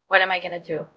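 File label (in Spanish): Voice Acting, es, female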